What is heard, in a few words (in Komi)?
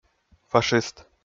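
"фашист", Komi, male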